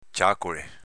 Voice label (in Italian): "ciacole", dialetto veneto